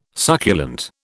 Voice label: "succulent", British